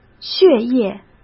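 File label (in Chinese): "血液", Mandarin Chinese; hsk; chinese-flashcards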